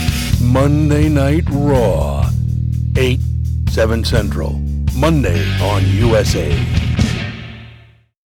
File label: Tough Promo; Monday Night Raw